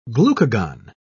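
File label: "glucagon", English, British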